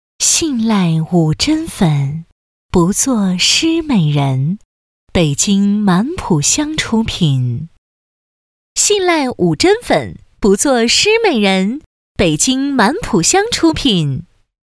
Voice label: 女 广告; 五珍粉

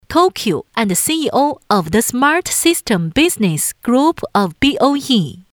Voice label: 女 广告 英语样音

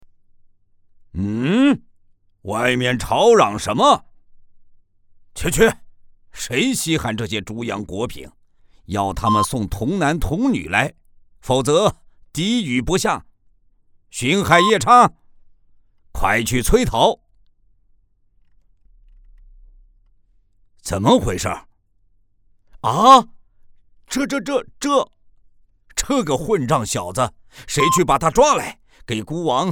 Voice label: 男 角色 龙王; 哪吒闹海

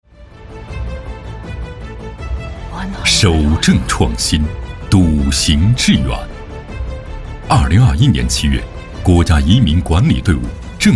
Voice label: 男 专题 军队 片 沉稳厚重; 总站正规化建设成果

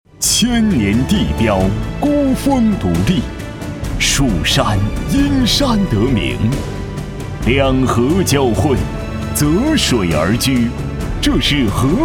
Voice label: 男 大气浑厚 宣传片; 七彩望西城市宣传片

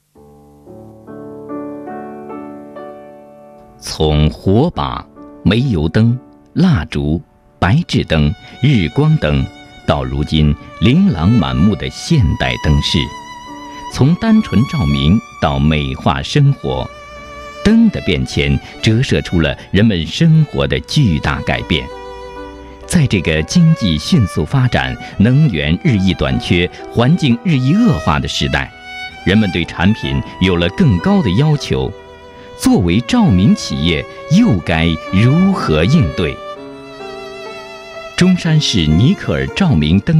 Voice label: 男 专题 抒情, 国语男声配音; 尼克尔灯饰

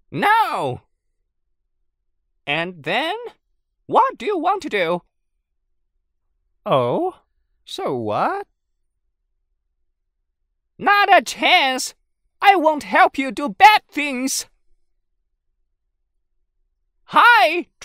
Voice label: 男 英文 动画角色

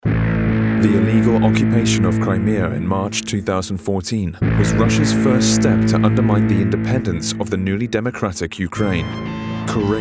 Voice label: 外籍英男 正式严肃 国际新闻播报

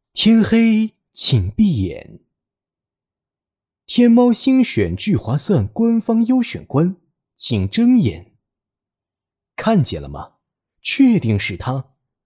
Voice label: 男 角色; 狼人杀

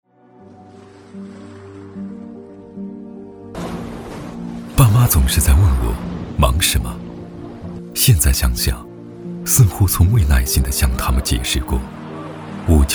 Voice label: 男 广告 独白 片 走心温暖; 追逐梦想

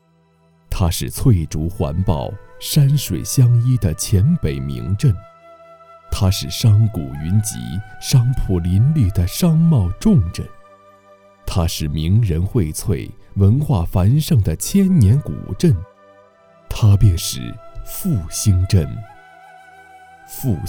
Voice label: 男 解说; 千年古镇·仁岸

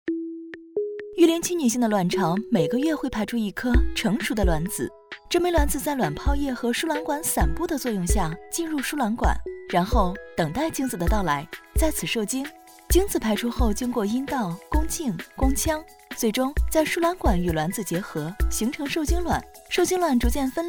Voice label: 女 专题 试管婴儿技术

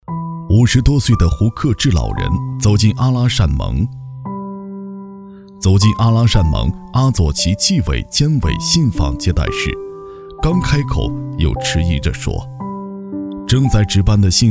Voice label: 男 人物专题 讲述

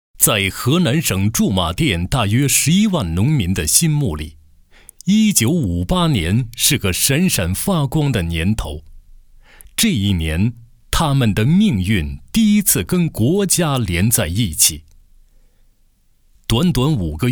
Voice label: 男 专题记录片; 兴湖济农

